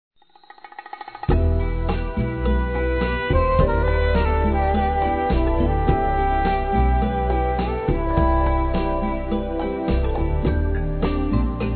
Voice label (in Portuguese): NEUTRA F, Páscoa, fem; Telemensagens